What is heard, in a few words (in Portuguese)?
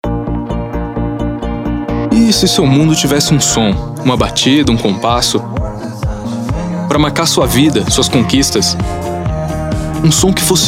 Coloquial Cool; Nextel Prip